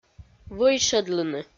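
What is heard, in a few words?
"вӧйшӧдлыны", Komi, female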